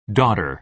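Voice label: "daughter", American English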